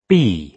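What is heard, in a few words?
"bee", British English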